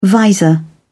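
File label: "visor", English, British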